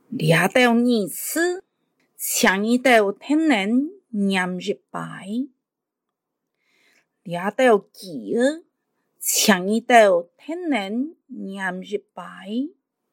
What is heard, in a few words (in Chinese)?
客語 課室生活用語 海陸腔